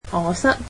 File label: "bed", Cantonese